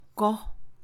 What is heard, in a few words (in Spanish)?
Mixe, Mujer; plantar; INALI